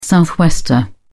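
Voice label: "southwester", English, British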